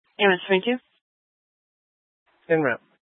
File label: Fire Dispatch, scanner